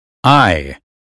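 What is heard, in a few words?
American phonetics sound eye; 英语音标发音（剑桥版）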